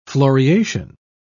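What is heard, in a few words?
"floriation", British English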